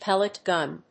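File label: "pellet gun", English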